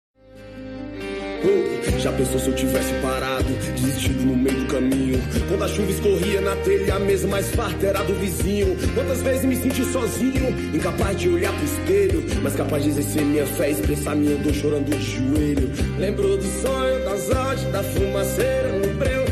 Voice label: aniv irmao fem, Voz Feminina; Telemensagem de Aniversário de Irmão

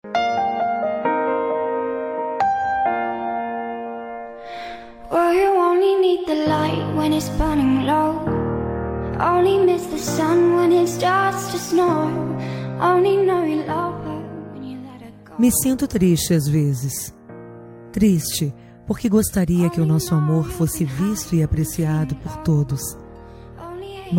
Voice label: dia dos namorados amante, voz feminina; dia dos namorados amantes voz feminina

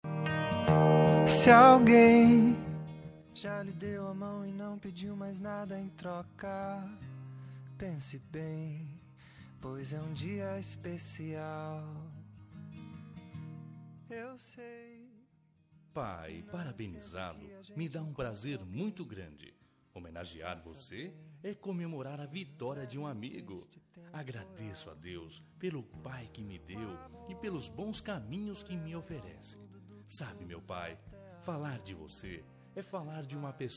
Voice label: masc, Dia dos Pais – Voz Masculina; Voz Masculina Linda

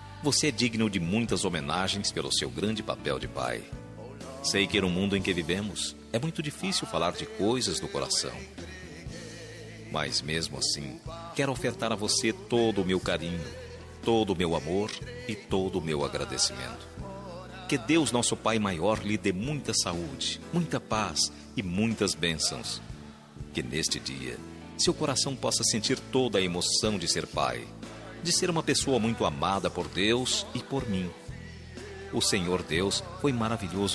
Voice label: masc gospel, Dia dos Pais Evangélico – Voz Masculina

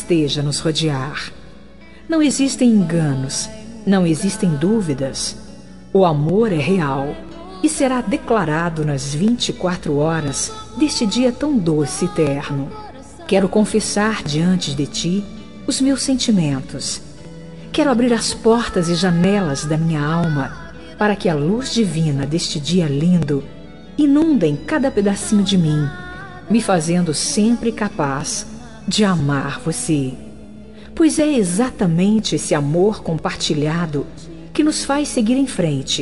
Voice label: dia dos namorados gospel namorado, evangélica voz feminina; dia dos namorados para namorado

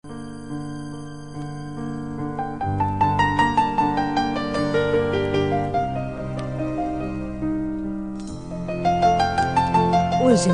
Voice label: Voz Feminina; Aniversário de Sobrinho

